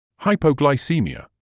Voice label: "hypoglycaemia", English

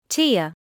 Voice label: "tear", medical English

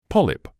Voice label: "polyp", English

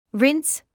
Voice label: "rinse", English word

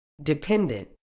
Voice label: "dependant", uk

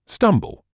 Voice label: "stumble", English

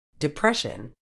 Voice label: "depression", uk

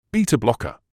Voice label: "beta blocker", medical english uk

